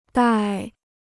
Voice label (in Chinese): "戴", Chinese